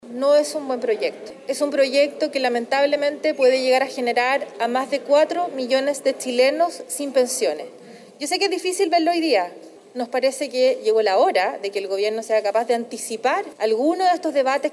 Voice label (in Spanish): cuña